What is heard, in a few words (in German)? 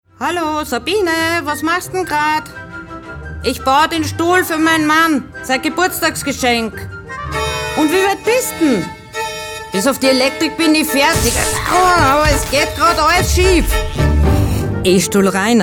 Werbung mit Musik; ElektroRainer; Sprecherdatenbank